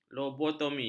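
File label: "lobotomi", Malay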